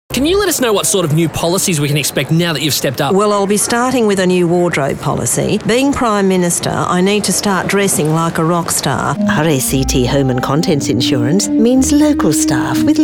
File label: character